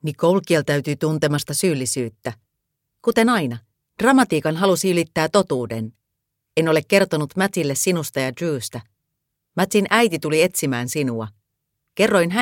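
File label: Finnish, Audiobook, female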